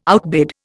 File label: "outbid", Indian